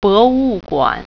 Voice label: "museum", Mandarin

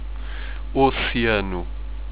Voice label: ocean, europortuguese; Oceano

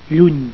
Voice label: "lluny", Catalan

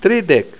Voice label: "tridek", esperanto

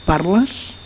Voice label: do you speak, catalan

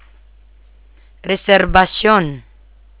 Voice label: "Reserbasyon", Tagalog

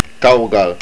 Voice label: Far, estonian

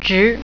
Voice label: "straight", Mandarin

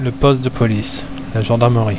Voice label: "police", French

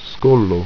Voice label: "skolo", ido

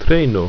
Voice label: "treno", Ido

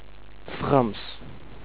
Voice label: "french", Dutch

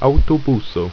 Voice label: "autobuso", Ido

